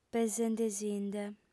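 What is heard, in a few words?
"pesendesinde", Sardinian, female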